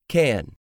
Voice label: "can", english; 3000 words

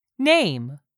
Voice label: "name", English; 3000 words

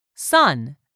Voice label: "son", English; 3000 words

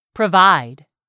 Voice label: "PROVIDE", English; 3000 words

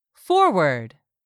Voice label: "forward", English; 3000 words

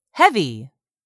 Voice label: "heavy", English; 3000 words

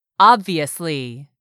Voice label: "obviously", English; 3000 words

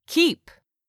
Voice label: "keep", English; 3000 words

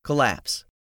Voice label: "COLLAPSE", English; 3000 words

